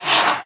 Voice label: Whisper; Death